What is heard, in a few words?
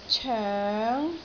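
"coeng2", Cantonese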